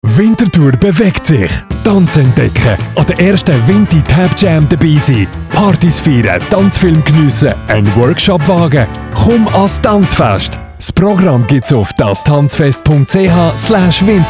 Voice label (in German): werbung; tanzfest winterthur; top-medien